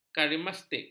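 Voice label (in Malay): "karismatik", Malay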